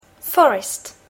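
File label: "forest", English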